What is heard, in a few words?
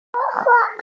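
"shuo1 hua4", Mandarin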